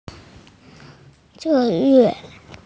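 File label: "cure", female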